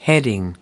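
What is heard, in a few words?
"heading", American English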